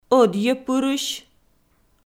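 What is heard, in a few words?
"ӧдйӧпырысь", Komi, female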